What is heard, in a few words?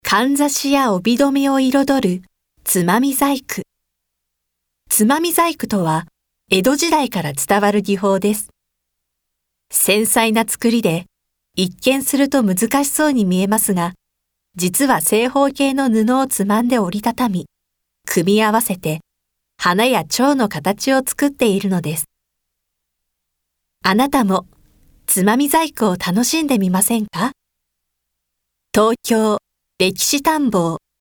narration